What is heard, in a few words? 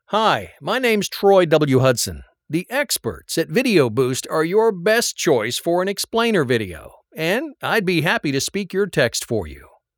American English; internationale voiceover